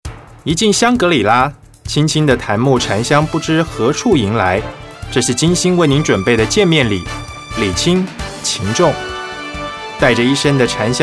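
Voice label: Taiwanese Mandarin male voice over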